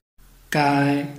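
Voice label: "gai", Chinese, tone 1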